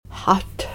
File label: father for female